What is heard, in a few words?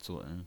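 "zun", Mandarin Chinese, tone 3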